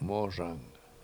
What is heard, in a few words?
"mOZang", washo; Indian Paint Brush